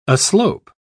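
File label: "aslope", English, British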